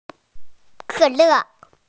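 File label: "cola", Mandarin, hearing-impaired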